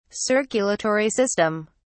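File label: "circulatory system", British English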